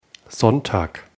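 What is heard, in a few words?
"Sonntag", German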